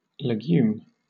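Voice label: "legume", British English; pronunciations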